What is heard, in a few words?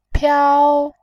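"piao", Mandarin, tone 1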